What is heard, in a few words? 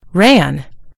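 "ran", American English; gcompris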